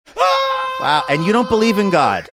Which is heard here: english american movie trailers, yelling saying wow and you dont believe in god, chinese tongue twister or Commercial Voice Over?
yelling saying wow and you dont believe in god